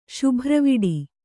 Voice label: "ಶುಭ್ರವಿಡಿ", Kannada

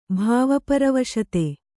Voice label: "ಭಾವಪರವಶತೆ", Kannada

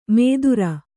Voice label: "ಮೇದುರ", Kannada

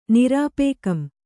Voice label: "ನಿರಾಪೇಕಂ", Kannada

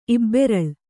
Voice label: "ಇಬ್ಬೆರಳ್", Kannada; ibberaḷ; noun

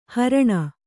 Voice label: "ಹಾರಣಿ", kannada

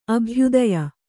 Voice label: "ಅಭ್ಯುದಯ", kannada

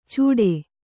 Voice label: "ಚೂಡೆ", Kannada

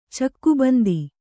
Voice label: "ಚೌಕಬಂಧ", Kannada

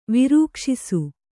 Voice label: "ವಿರೂಕ್ಷಿಸು", Kannada

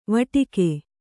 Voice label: "ವಟಕ", kannada

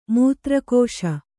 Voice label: "ಮೂತ್ರಕೋಶ", Kannada

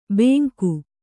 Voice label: "ಬೇಂಕು", Kannada

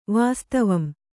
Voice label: "ವಾಸ್ತವಂ", Kannada; vāstavam; actually; really; in fact.

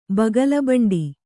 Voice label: "ಬಗಲುಬಂಡಿ", Kannada

